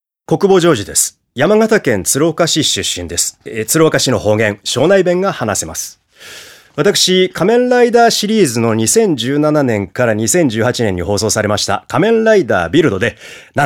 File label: freetalk, male voice